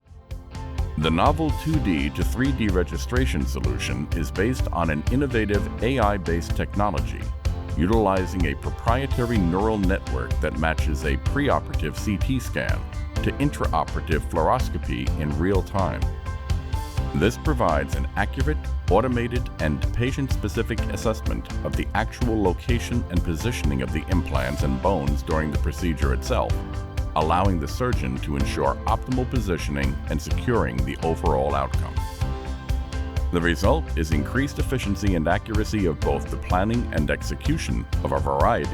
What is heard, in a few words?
Medical Voiceover